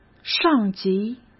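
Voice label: "上级", Mandarin Chinese; hsk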